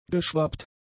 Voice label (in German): "geschwappt", German; adjectives